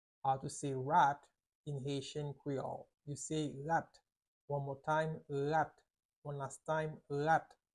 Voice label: "Rat" Haitian Creole pronunciation by a native Haitian tutor; How to say Rat in Haitian Creole